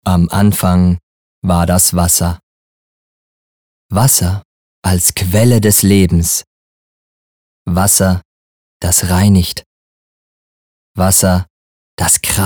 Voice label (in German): Spot sanft dt